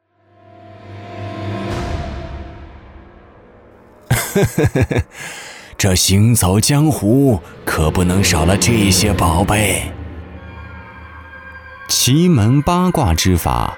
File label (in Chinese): 男 游戏 角色合集